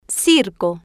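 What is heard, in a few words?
"circo", Spanish, female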